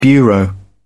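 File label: "bureau", British English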